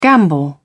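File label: "gambol", English, British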